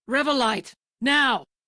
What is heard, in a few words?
Crew Need Revolite, Female; Dialog; en; Warframe; Railjack Crew; Steel Meridian